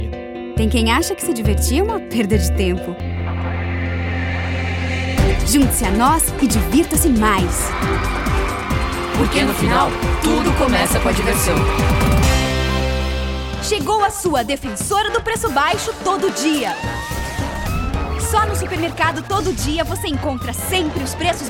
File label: COMERCIAL